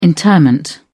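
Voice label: "interment", British English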